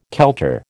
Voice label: "kelter", American

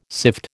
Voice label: "sift", American

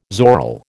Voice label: "zoril", American

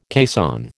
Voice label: "caisson", American

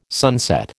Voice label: "sunset", American

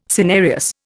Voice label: "cinereous", Indian

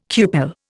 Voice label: "cupel", Indian

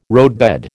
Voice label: "roadbed", American

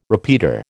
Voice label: "repeater", American

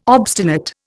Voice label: "obstinate", Indian

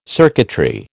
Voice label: "circuitry", American

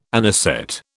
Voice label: "anisette", British

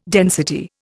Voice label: "density", Indian

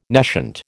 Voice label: "nescient", American

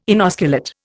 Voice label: "inosculate", Indian

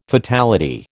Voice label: "fatality", American

